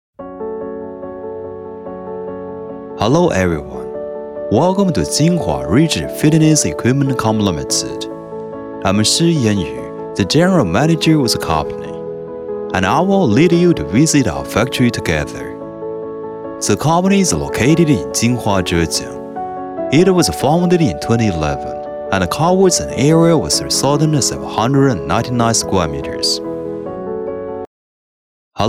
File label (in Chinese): 金华市锐志健身器材有限公司(宣传片)